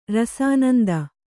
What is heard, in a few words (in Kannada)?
"ರಸಾನಂದ", kannada